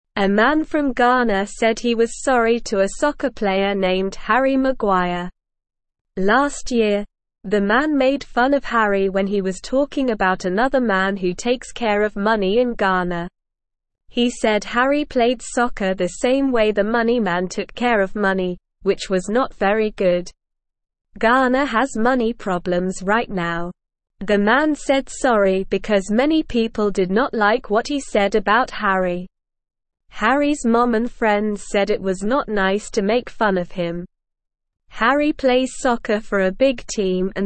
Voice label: Beginner SLOW Reading, English; English Newsroom; Man Apologizes to Harry Maguire for Making Fun

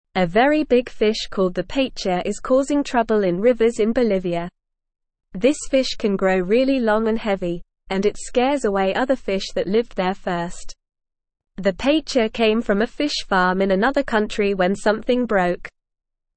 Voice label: English NORMAL Reading; Trouble in the Amazon Big Fish Causing Problems; English Newsroom

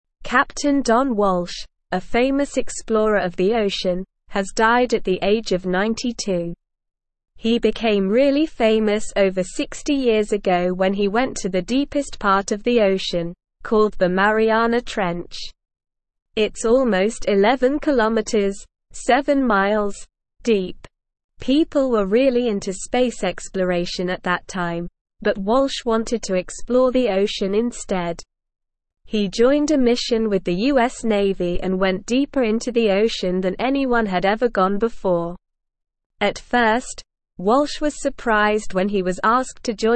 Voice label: English Newsroom Upper Intermediate SLOW Reading

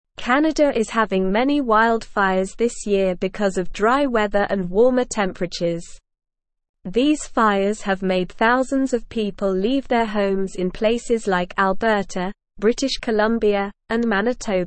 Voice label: English SLOW Reading; Canadas Big Fires People Leaving Their Homes

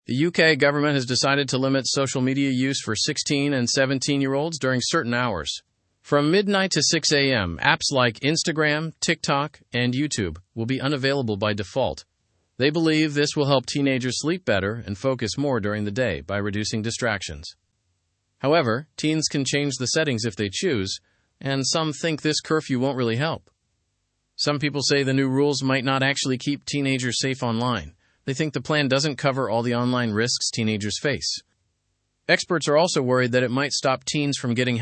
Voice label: english through news lower intermediate fast; uk plans social media ban for teens

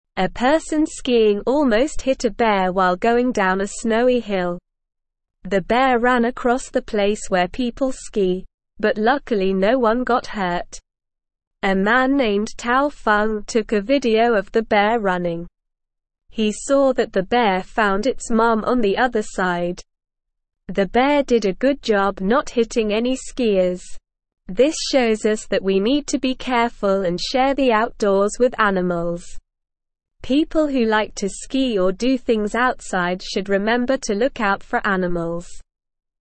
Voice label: English SLOW Reading; Bear and Skier A Surprising Encounter on the Hill; English Newsroom

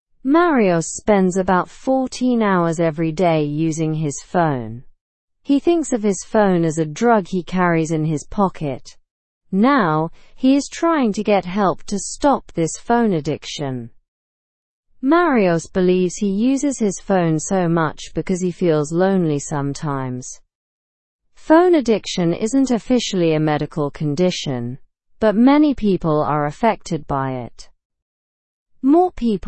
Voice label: english news lower intermediate; rehabilitation for phone addiction growing

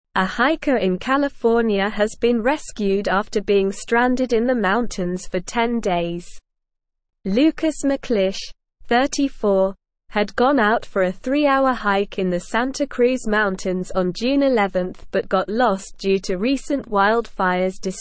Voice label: English SLOW Reading; Hiker Rescued After 10 Days Stranded in Mountains

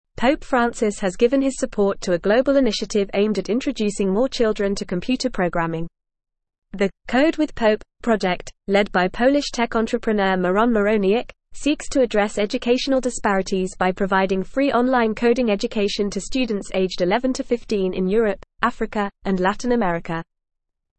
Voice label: English Newsroom Advanced FAST Reading; Pope Francis Backs Free Coding Education for Children

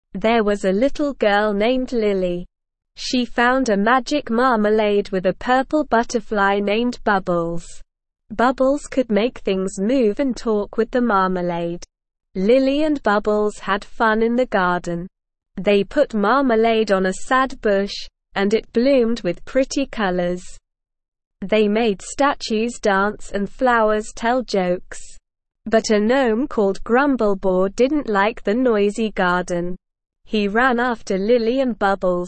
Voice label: ESL Short Stories for Kids Beginner SLOW Reading; The Magical Marmalade

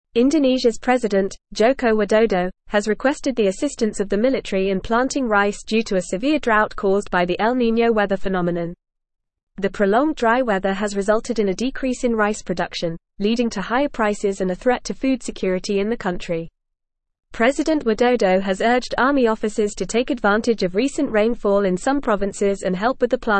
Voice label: English Newsroom Advanced FAST Reading; Indonesias President Calls on Military to Assist Farmers

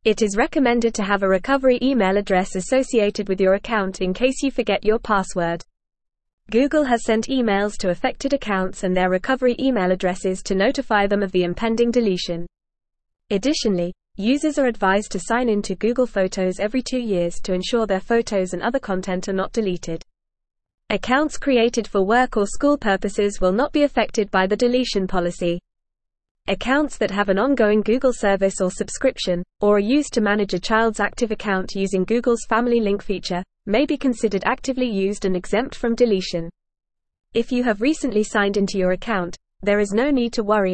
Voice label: English Advanced FAST Reading; English Newsroom; Google to Delete Inactive Accounts for Security

